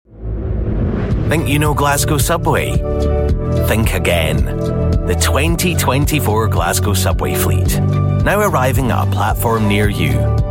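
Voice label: scottish voice commercial, Scottish Voiceover (Edinburgh)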